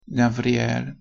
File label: Hebrew